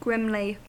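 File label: "grimly", US English; pronunciations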